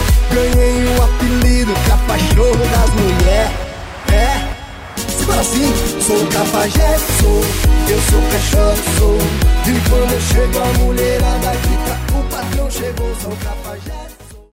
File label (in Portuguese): chamada festa voz; stúdio H